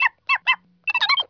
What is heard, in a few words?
vox droid